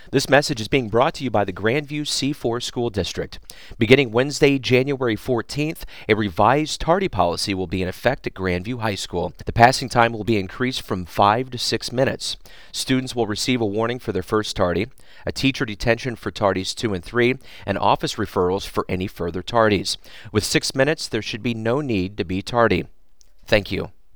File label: tardy announcement